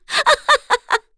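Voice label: Vox Happy, korean; FreyB; kingsraid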